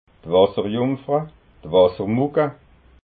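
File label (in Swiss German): "wasserjumfra", Alsatian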